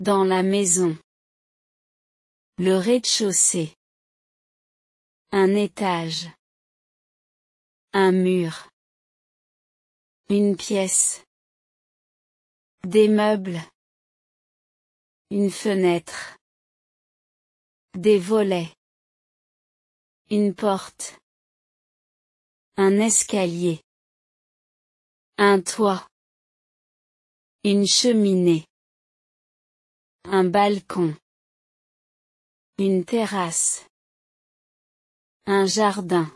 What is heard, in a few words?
home description french pronunciation